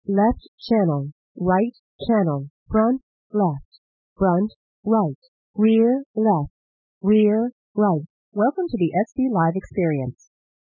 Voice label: female plane noise